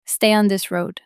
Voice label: Female Stay On This Road